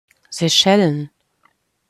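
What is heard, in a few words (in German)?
"Seychellen", German; pronunciation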